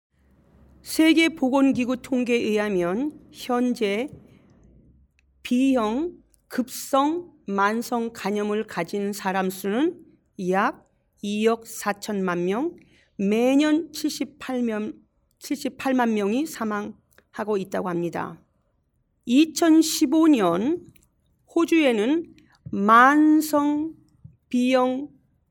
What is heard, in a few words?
Korean; hepatitis; AskHepatitisCampaign